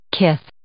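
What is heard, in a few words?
"kith", English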